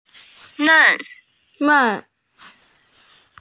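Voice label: "nen4", Mandarin Chinese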